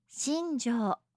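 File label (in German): "shinjo", japanische Mädchennamen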